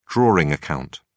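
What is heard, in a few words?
"drawing account", British English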